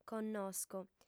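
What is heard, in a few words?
"connosco", female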